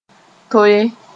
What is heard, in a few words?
"toe", moore